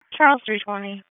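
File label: Raleigh Police Northeast District Dispatch, p25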